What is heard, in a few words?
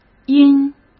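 "因", Mandarin Chinese; chinese flashcards; hsk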